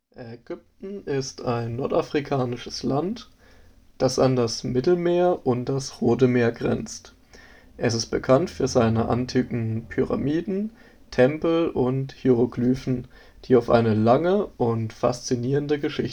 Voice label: 1More Aero Soundtest